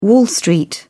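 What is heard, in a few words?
"wall street", British English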